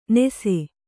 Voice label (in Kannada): "ನೆಸೆ", Kannada